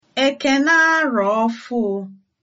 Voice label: new year, igbo

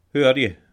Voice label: how are you, scots; Hoo are ye?

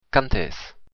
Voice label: how much, occitan

